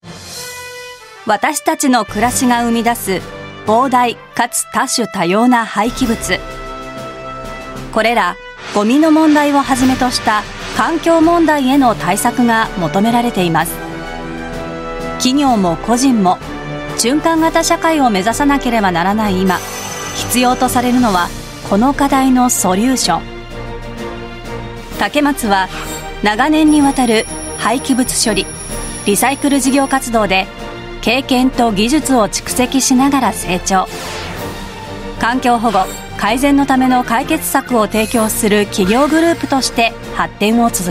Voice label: Japanese Corporate

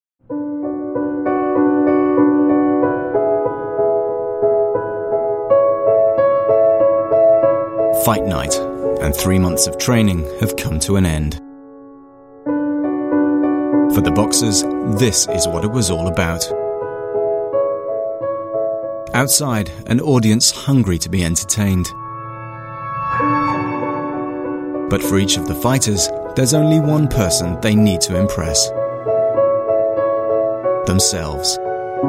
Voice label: UK English Documentary Boxing; Male

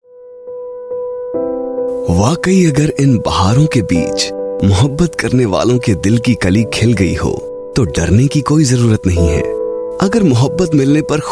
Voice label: Hindi Soft Soothing; India; Male

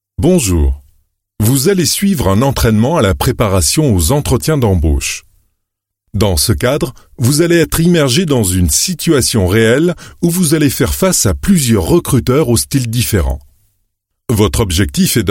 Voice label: French E-Learning

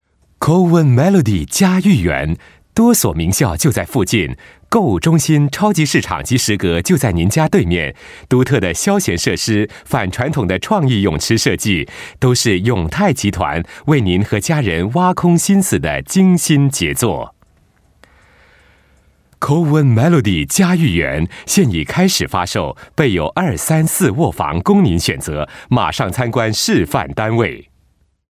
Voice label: Radio Mandarin, China male

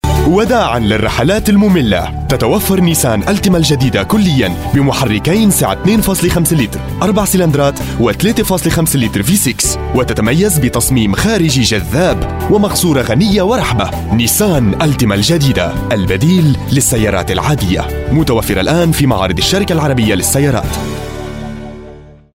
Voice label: Arabic Male

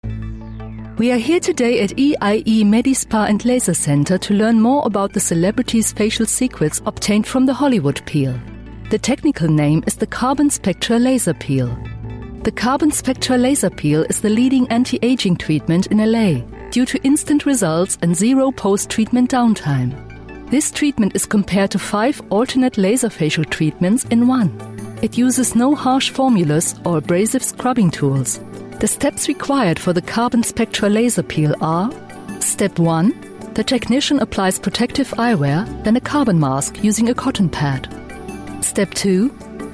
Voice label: German; Carbon Spectra Laser Peel

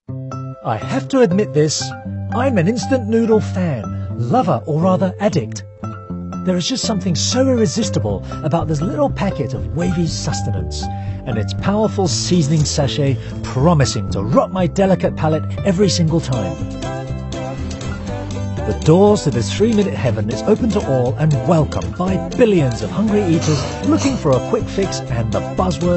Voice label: UK English Trailer; Instant Noodle Diary

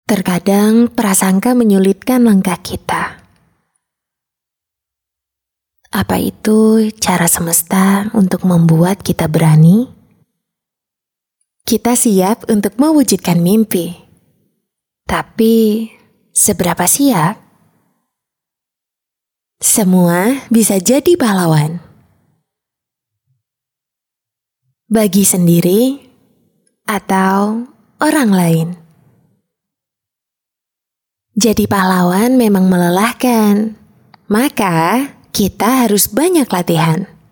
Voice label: Bahasa Indo; Qoala

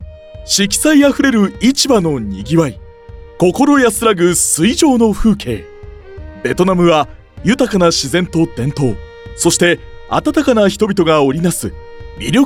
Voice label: Japanese Male Corporate Mid Energy

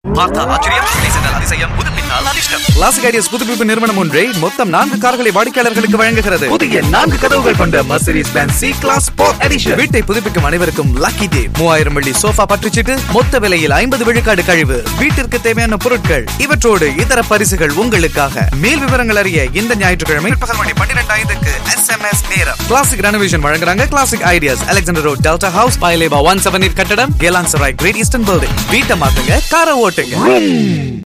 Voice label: Classic Tamil M; India Male

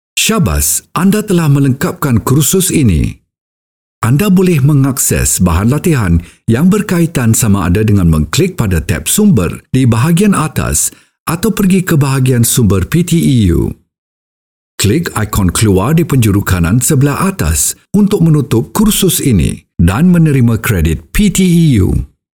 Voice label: Malay Audio Script

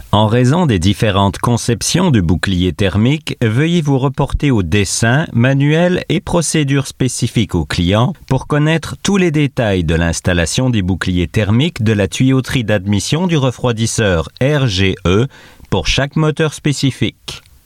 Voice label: French Canadian; ge electric train

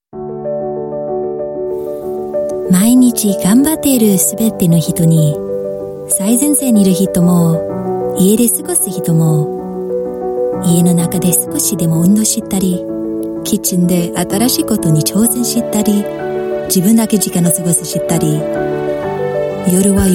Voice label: Asian English Japanese; Fitbit

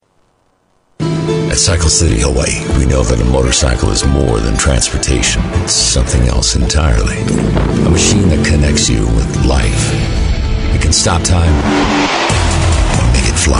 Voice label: US English Commercial, Male

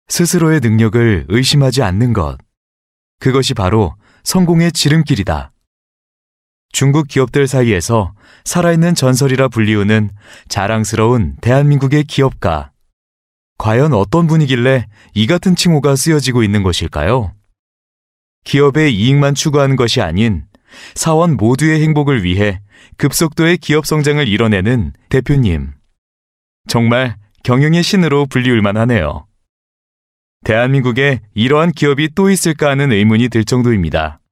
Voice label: Korean Male

